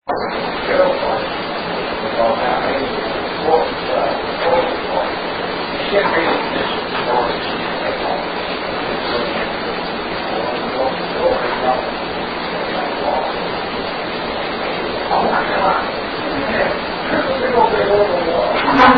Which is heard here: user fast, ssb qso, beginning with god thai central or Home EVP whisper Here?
Home EVP whisper Here